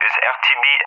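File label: "is RTB", English speech; Messages; Allied Flight; DCS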